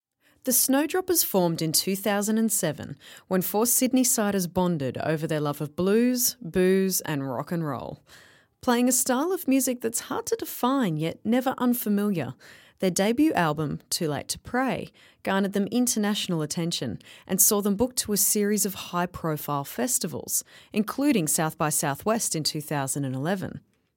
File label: rode ntg 3 voice over